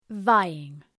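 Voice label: "vying", English; (Ουσιαστικό)● άμιλλα αμιλλώμενος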